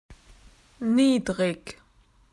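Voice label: "under", Australian English; PictureDictionary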